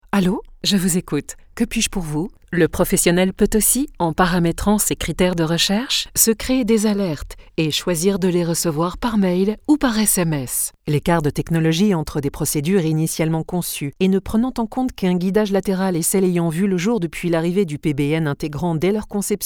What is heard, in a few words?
french